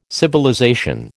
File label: "civilization", American